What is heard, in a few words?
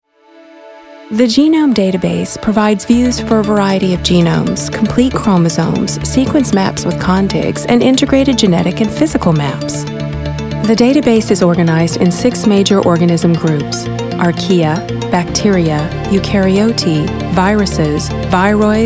VO Narrative